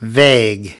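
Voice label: "vague", American English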